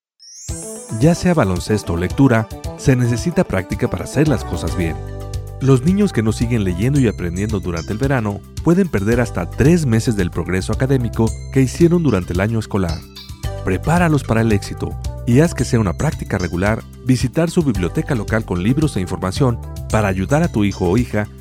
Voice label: Summer Reading Radio Spanish